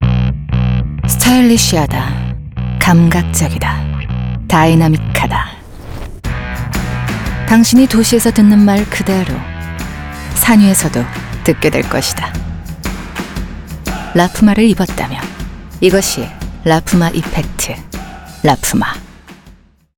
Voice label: 지적인 섹시한 30대; 라푸마

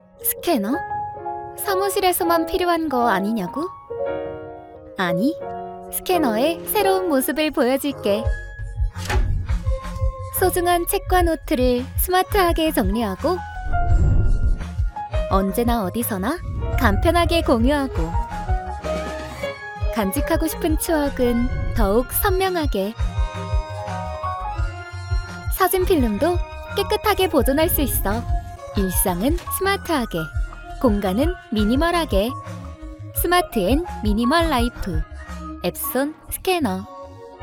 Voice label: 광고 자연스럽고 친근한

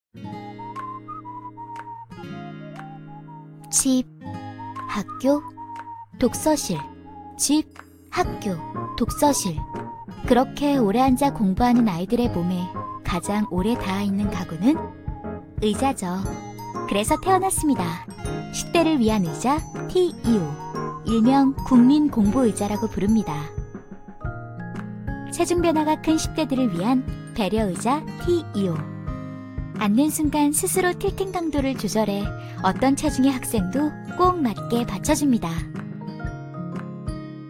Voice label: 맑은톤 자연스러운; 시디즈

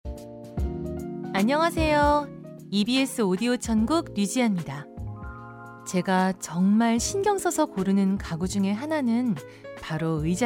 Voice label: 라디오DJ 편안한 일반인 톤; EBS-FM-오디오천국

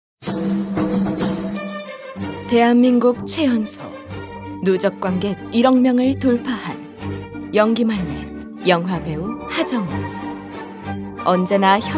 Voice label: 포커게임 분위기있는

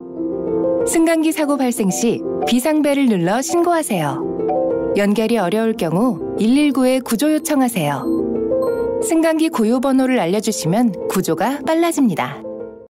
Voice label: 광고 진지한; 승강기 비상시 대처

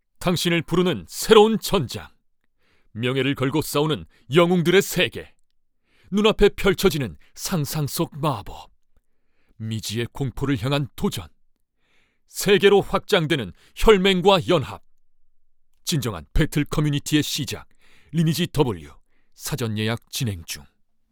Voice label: 게임 광고

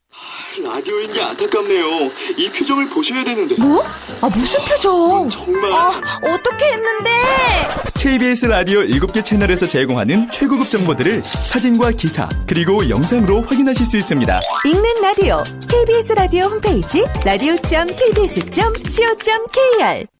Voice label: 지적이며 밝고 위트있는 라디오 광고

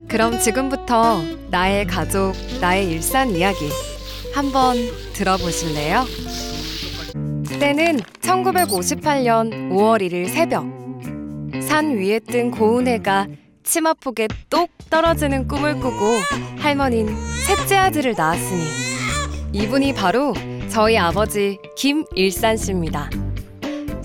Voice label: NA 1인칭 밝은 자연스러운

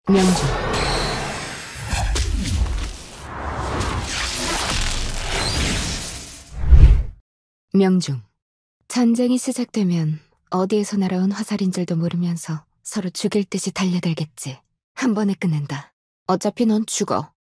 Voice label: 20대후; 초은오리온; 데스티니차일드

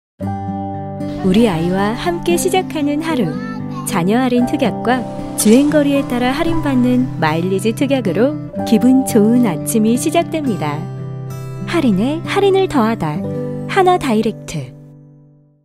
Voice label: 잔잔하고 세련된 톤의 광고; 한화다이렉트

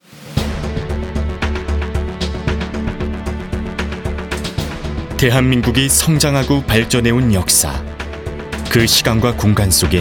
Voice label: 힘찬 기업 홍보 내레이션

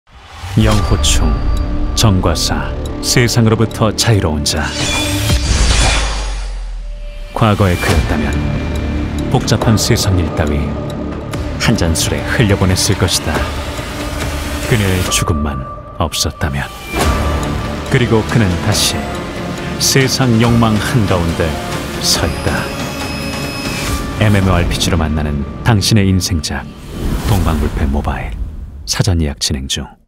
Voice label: 차분하고 진중한 30대; 동방불패 모바일

